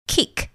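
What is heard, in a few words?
"kick", English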